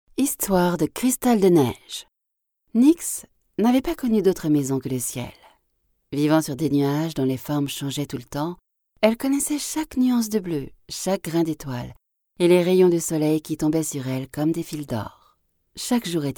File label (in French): Audiolivre Enfants, voix off femme